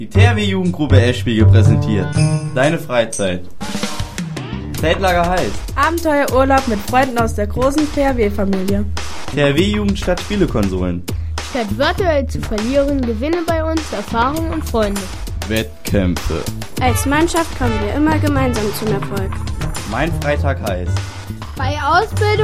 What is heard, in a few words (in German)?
Radio Spot; THW